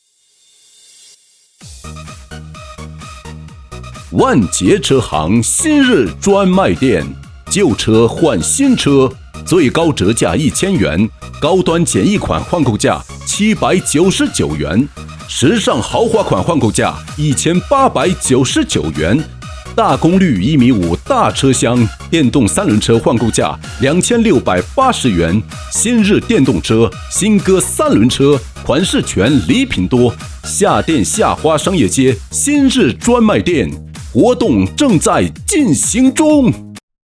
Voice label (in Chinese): 男 促销 浑厚力度; 万杰车行